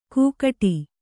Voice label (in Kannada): "ಕೂಗಟಿ", Kannada